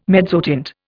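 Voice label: "mezzotint", English, Indian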